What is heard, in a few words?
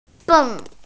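"beng4", Mandarin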